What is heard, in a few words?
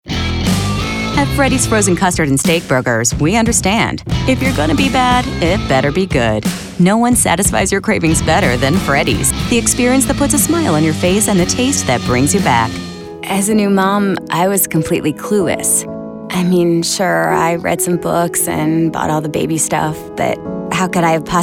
Commercial